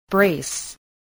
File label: "brace", spelling